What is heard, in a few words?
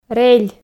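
"рель", Komi, female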